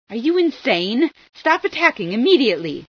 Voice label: you insane, female sci